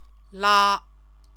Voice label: "la", Chinese